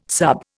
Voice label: "sub", Indian